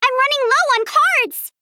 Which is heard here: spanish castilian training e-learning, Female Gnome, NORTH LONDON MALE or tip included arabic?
Female Gnome